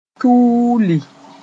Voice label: "tuuli", moore

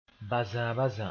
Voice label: "bazãabazã", Moore; big spider

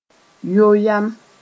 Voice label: "yo yam", Mooré; hesitate

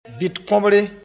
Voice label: "bɩt-kõbre", moore; plant sp

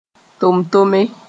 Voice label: "tom-tome", Mooré

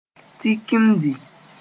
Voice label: "tikimdi", Moore; gathered together

